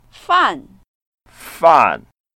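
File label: "fan4", Mandarin Chinese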